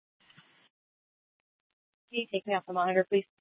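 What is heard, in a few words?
Scottsdale PD North Dispatch; Nth Dispatch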